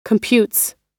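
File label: "computes", American English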